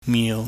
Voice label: "miu", Chinese